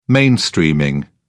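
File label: "mainstreaming", British English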